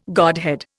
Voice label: "godhead", Indian